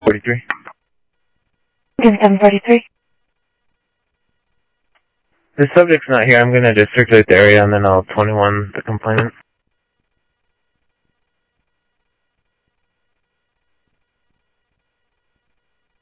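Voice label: Sheriff East County, scanner audio trunk; MCSO - EAST Sheriff-EastCnty; ThompsonPeakScot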